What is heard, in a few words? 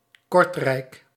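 "Kortrijk", Dutch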